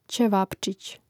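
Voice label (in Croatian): "ćevapčić", Hrvatski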